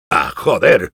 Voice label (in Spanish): Engineer negative vocalization, es